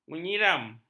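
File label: "menyiram", Malay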